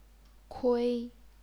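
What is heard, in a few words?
"kui", Chinese, first tone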